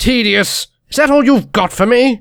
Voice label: negative vocalization